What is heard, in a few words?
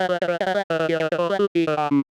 medium clear sage; voice archetypes; voxel audio preview